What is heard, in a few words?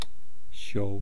"shwaa", East Cree syllabics